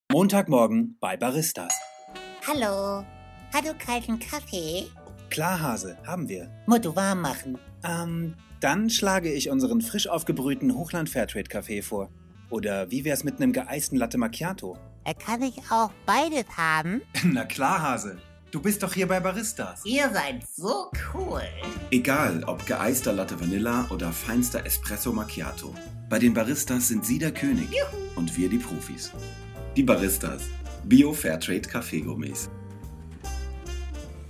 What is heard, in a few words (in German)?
charge - trickstimme - werbung